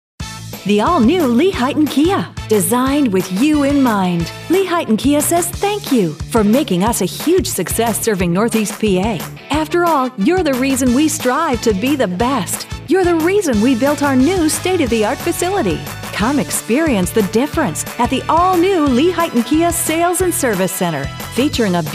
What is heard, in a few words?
Energetic, Car Dealers